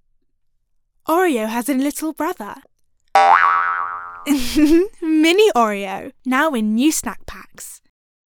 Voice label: Commercial; Oreo